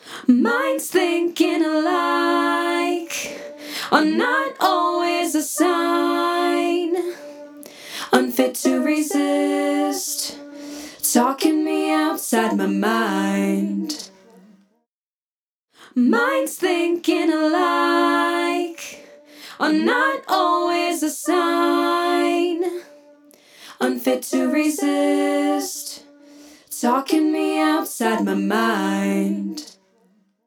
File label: Female Vox Bus, Vocal Bus, Smooth LA; Omnipressor